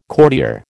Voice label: "courtier", American

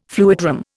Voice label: "fluidram", Indian

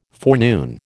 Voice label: "forenoon", American

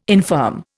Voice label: "infirm", Indian

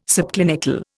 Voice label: "subclinical", Indian

